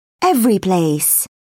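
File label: "everyplace", British English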